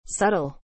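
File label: "subtle", English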